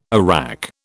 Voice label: "arrack", British